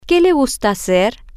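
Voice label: what do you like to do, Spanish, female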